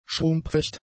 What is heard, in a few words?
"schrumpfecht", German; adjectives